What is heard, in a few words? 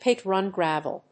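"pit-run gravel", English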